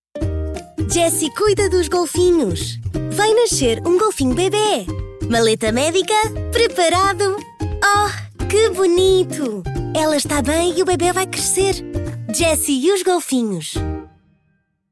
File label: Spot